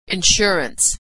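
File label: "insurance", American English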